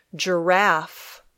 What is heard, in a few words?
"giraffe", English